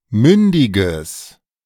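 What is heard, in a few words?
"mündiges", German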